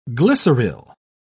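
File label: "glyceryl", English, British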